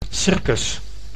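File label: "circus", Dutch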